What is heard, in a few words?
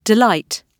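"delight", British English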